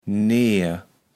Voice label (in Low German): "neer", kaevels platt